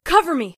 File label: "cover me", Female; Voiceover; war; Kenney's Sound Pack